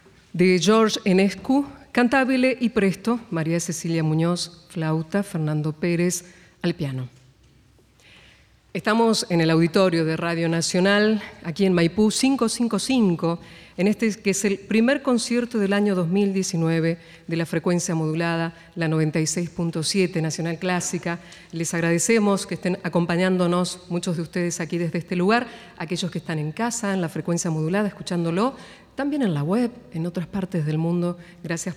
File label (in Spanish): locutora